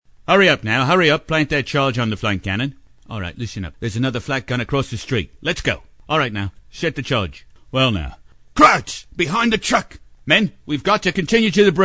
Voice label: COMMANDER - British; animation

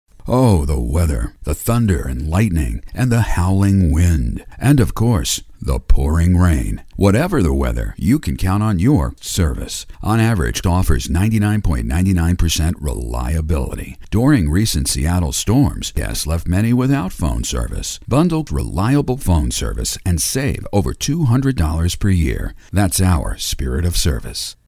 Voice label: TELECOMMUNICATIONS Trust & Confidence; commercials

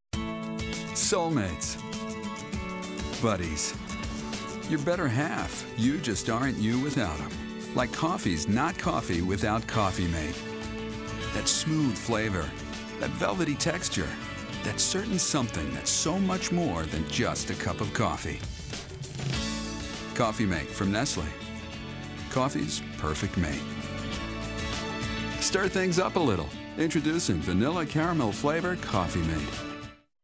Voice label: National TV Signature; commercials

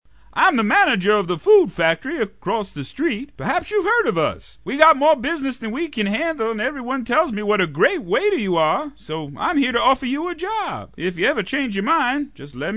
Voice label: MANAGER - Retail, cartoon; animation

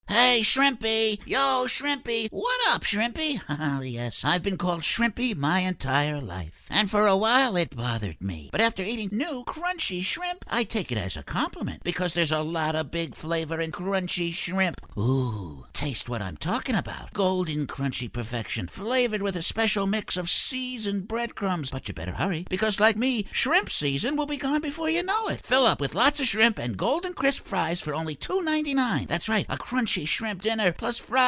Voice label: Food - Character Announcer, commercials

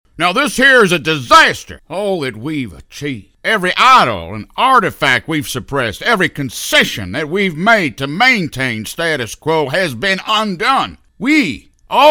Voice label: Preacher & Mason, Southern Evil; animation

